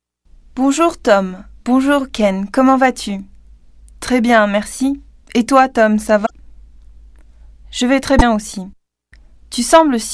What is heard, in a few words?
french